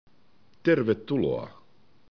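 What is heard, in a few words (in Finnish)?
"terve", Finnish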